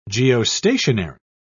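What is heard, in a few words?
"geostationary", British English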